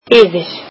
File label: icelandic above